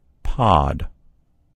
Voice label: "pod", en US; gcompris

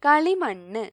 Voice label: "clay", ml; gcompris

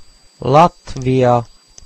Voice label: "latvia", fi; gcompris-data; geography

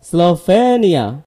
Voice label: "slovenia", id; gcompris-data; geography

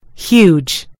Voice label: "huge", en GB; gcompris

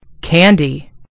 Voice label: "candy", en GB; gcompris

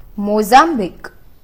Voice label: mozambique, hi; gcompris-data; geography

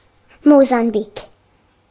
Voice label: mozambique, ga; gcompris-data; geography

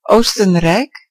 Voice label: austria, nl; gcompris-data; geography

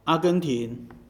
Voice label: argentina; gcompris-data; geography

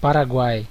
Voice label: "paraguay", pt BR; gcompris; geography

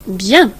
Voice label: "good", fr; gcompris

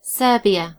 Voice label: "serbia", en GB; gcompris

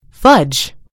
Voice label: "fudge", en US; gcompris

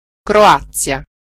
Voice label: croatia, it; gcompris-data; geography

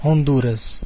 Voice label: "honduras", pt_BR; gcompris; geography

